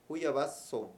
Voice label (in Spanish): hierba, Mayo; Agricultura y vegetación